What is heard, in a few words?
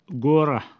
"gurH", Pashto